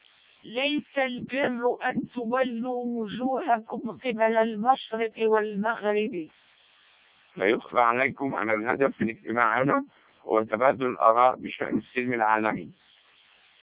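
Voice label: Arabic speech, CODEC2 vocoder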